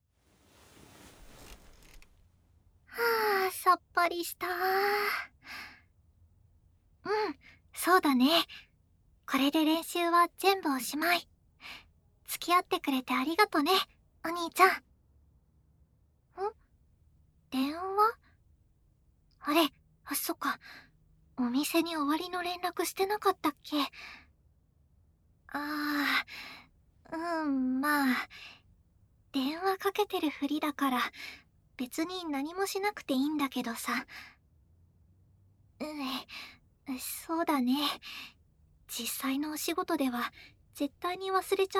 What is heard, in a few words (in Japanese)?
お礼の耳かき, ASMR